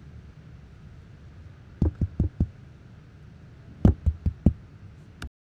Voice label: Male Voice; NYCC 2013